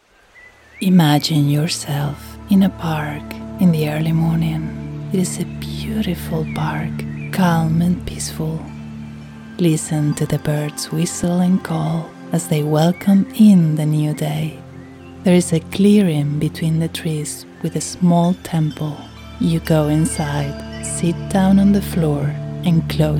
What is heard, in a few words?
Spanish English NARRATOR MEDITATIVE ZEN